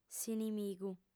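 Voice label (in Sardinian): "s'inimigu", female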